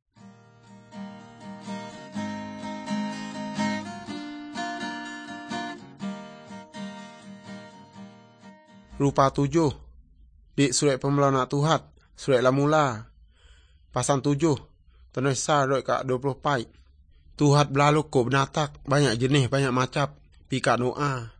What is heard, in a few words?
good news, urak lawoi